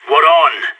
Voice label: pep talk, radio; SAS